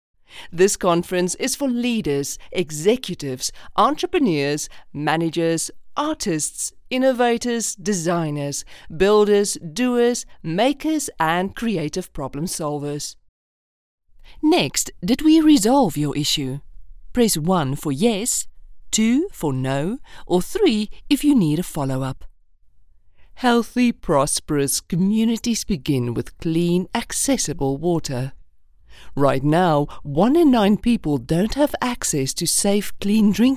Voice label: english corporate videos